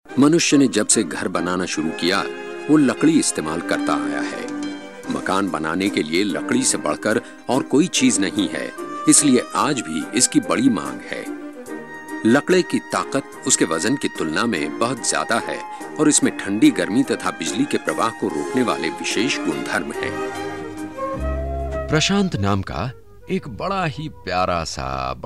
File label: indian male hindi